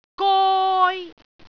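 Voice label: "goi1", Cantonese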